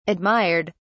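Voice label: "admired", British English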